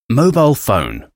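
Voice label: "mobile phone", English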